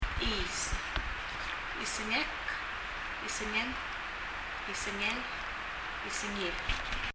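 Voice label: "iis" Palauan, nose; 鼻・nose; 発音; 身体の各部位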